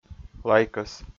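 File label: "лайкӧс", Komi, male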